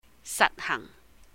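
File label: "saht hàhng", put into practice; implement, Cantonese; 實行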